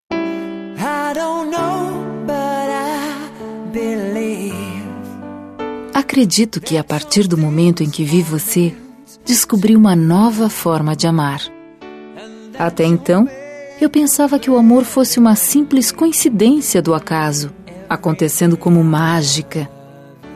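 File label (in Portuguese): Namorados Voce Me Surpreende, female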